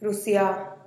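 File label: "rusiaa", Teotitlán del Valle Zapotec; clean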